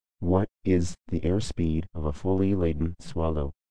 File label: low voice